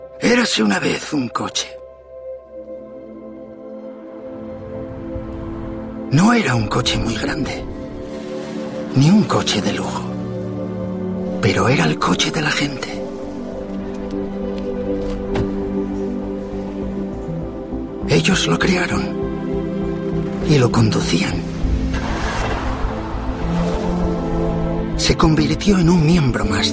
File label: Spanish; Felices sue os Ford Fiesta